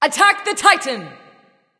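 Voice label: vox attack male; smite; awilix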